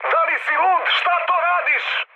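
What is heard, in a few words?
Serbian player killed ally mood high, speech; War Thunder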